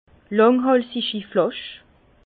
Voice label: "langhalsigi", Bas Rhin; vin; bouteille d’alsaceà long col

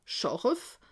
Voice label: "scharf", alsace

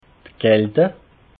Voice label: "kalte", alsace

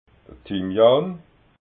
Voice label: "thymian", alsace

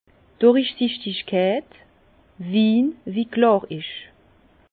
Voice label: "durichsichtigkeit", Bas Rhin; vin; limpidité

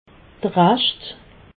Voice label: "rascht", alsace

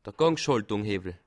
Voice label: "gang", Alsace

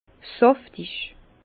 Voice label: "saftig", Bas Rhin; fondant; vin

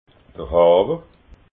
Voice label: "hawer", alsace

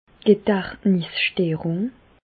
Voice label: "gedachtnissterung", Bas Rhin; medecine; trouble cognitif

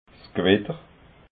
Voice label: "gwitter", alsace

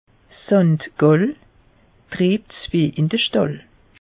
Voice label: "sankt gall", alsace; meteo

